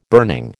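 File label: "burning", American English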